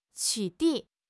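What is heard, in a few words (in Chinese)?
"取缔", Chinese; HSK6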